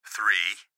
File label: Gps Voice, Male